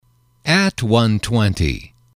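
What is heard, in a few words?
"one twenty", English, male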